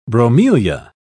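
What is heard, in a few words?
"bromelia", British English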